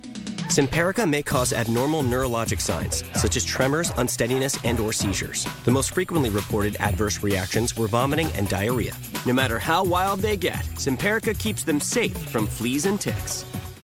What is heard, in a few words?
fair and balance Trustworthy reliable confident; Simparaca